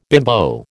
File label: "bimbo", American